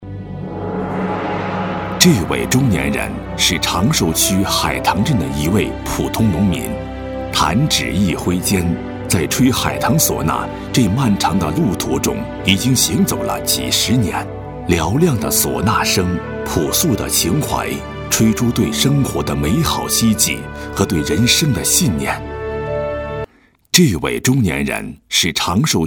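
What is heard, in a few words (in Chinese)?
男国大音-非遗讲述-唢呐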